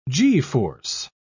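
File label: "g-force", British English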